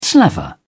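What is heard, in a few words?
"slaver", British English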